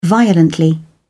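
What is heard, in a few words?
"violently", English, British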